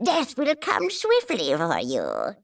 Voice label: Female; baldurs1